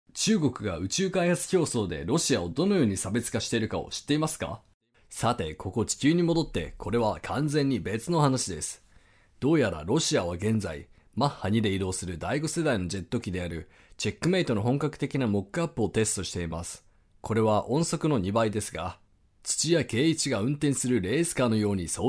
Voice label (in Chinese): 日语 男 轻快, 外语 小语种